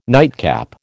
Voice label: "nightcap", American